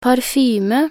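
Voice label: "parfyme", Norwegian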